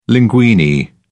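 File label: "linguine", British English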